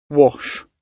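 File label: "wash", British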